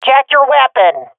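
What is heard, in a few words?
taking fire friendly, swat female; Counter Strike Model Pack; CS:GO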